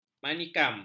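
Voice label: "manikam", Malay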